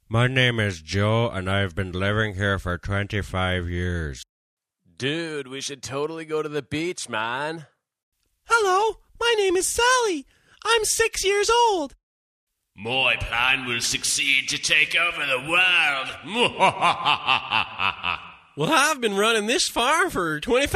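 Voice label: character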